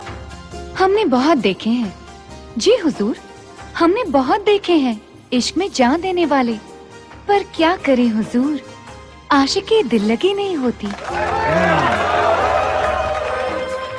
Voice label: Lovely Shayari